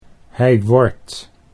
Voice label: hij wordt, Dutch